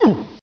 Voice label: "uh", male